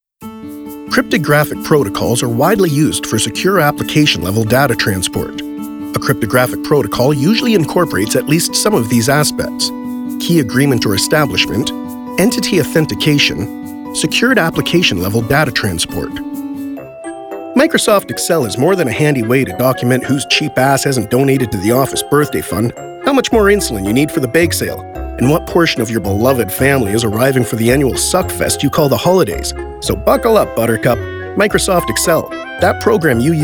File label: E LEARNING